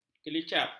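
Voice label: "kelicap", Malay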